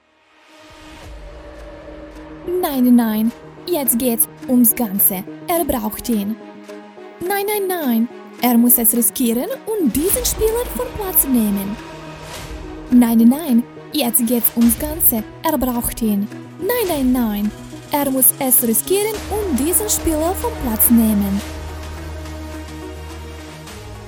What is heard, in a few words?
german commercial; Professional Serbian native voice-over actor from Belgrade